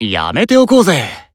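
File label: Voice Emo No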